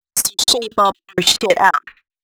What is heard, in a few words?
female c#132bpm, spoken hooks; Mutekki Media; Dance Ultra Voice VOL-1